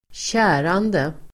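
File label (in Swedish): "kärande", Swedish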